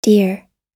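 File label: "dear", US English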